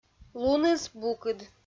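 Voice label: "луныс букыд", Komi, female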